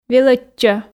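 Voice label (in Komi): "велӧдчӧ", Komi, female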